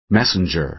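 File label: "messenger", American English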